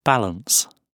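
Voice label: "balance", British English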